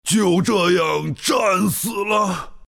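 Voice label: death, Chinese; dota2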